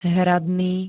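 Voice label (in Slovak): "hradný", Slovak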